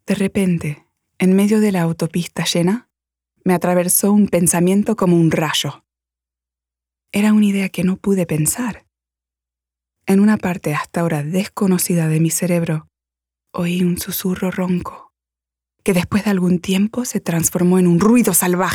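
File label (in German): Spanish, Schauspiel; Mensaje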